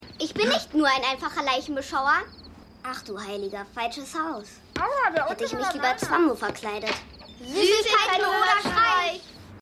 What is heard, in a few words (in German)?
Junge; Picket Fences Tatort Gartenzaun; Halloween